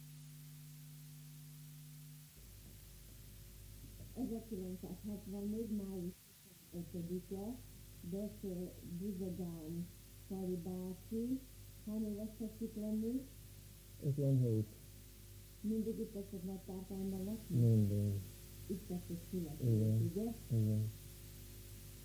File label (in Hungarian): nyelvjaras gyujtesek